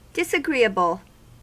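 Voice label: "disagreeable", US English; pronunciations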